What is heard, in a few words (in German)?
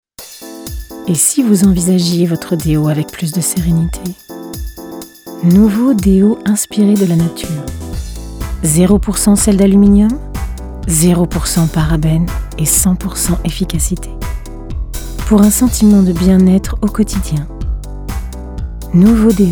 Werbung; Douceur